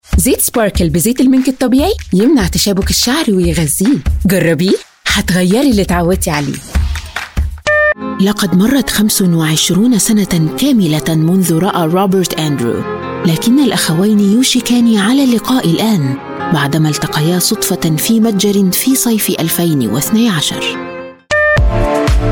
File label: arabic voice over female commercial